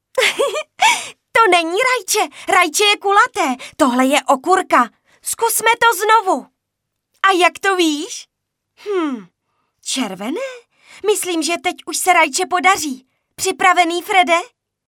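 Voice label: female Czech drama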